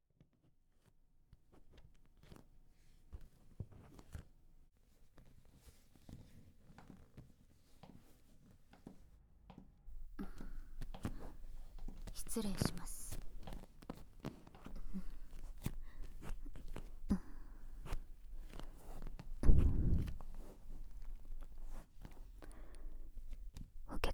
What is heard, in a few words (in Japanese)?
密着ちんこマッサージ, ASMR; BGMなし; ゾクッゾクッ!健全!ちんこマッサージ店; フォーリーサウンド